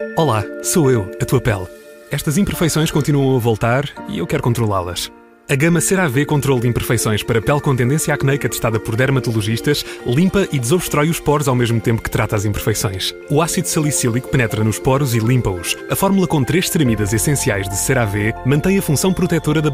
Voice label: Commercial